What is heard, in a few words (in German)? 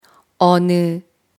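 "welches", German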